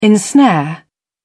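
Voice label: "ensnare", British English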